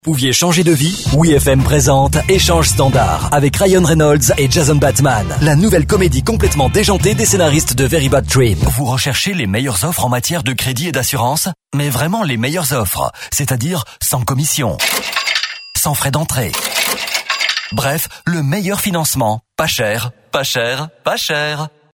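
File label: French France, male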